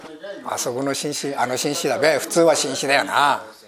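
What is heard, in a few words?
shenshe, Minamiyamagata dialect Japanese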